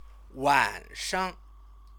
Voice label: "wanshang", Chinese; 晚 上; evening, night; time word